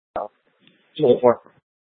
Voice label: Light Rail Emergency, scanner